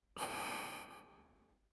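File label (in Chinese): "承办", Mandarin Chinese; HSK6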